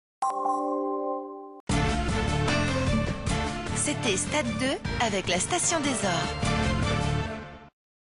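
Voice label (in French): Billboard TV Sportive fraiche, voix off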